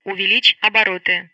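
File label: Speech Russian; Betty; Power; DCS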